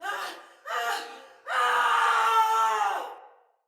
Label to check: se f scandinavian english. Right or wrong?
Wrong